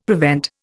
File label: "prevent", Indian; Dictionary